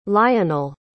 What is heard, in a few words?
"lionell", pronounce